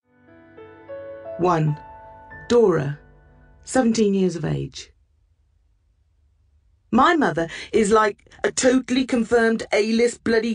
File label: AUDIOBOOK; A TINY BIT MARVELLOUS